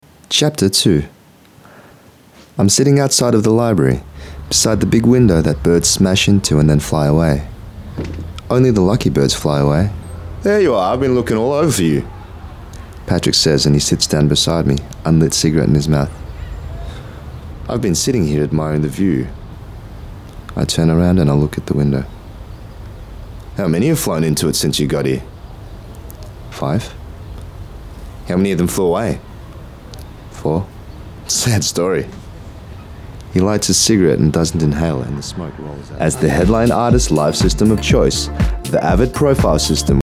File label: Audiobook Voiceover